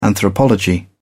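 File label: "anthropology", English, British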